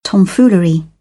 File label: "tomfoolery", British English